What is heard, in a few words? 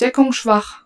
German, Shldlow